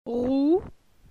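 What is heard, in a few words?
"Rou", French; phonetique; la syllabe ou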